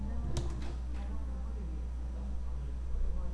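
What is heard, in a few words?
"lick", spoken word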